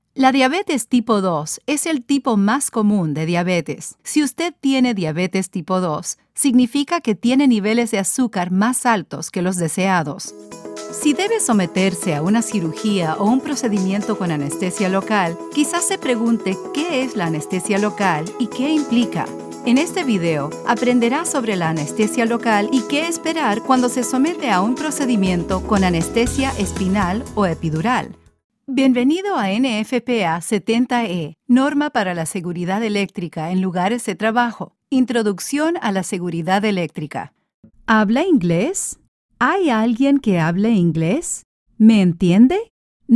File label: eLearning Spanish